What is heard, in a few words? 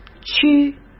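"区", Mandarin Chinese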